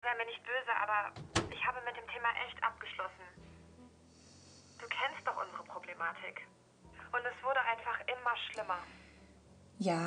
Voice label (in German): synchron